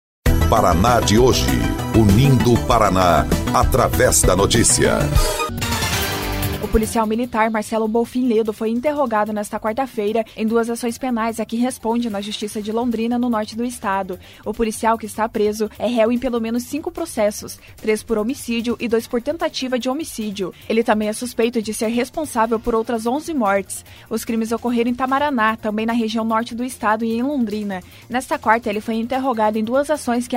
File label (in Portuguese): BOLETIM; Policial militar acusado de pelo menos três homicídios e duas tentativas de homicí